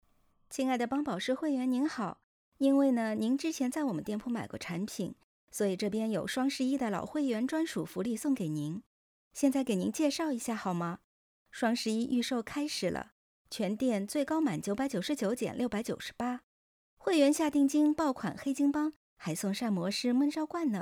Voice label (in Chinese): 电话推广客服